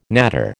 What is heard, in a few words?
"natter", American